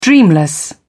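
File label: "dreamless", British English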